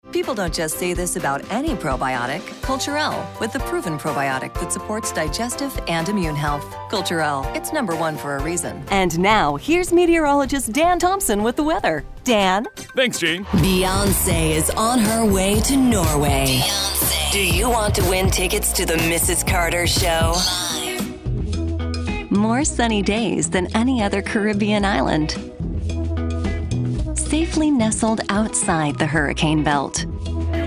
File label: Commercial Demo